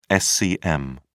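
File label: "scm", British English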